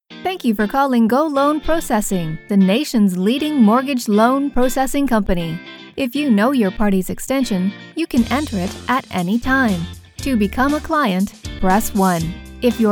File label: IVR